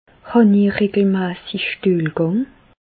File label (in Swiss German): stuehlgang, Bas Rhin; medecine